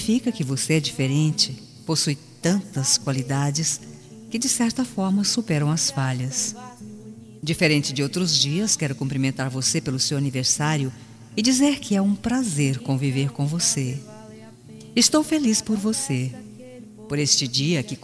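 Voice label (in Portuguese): birthday CUNHADO female, telemensagem; aniversario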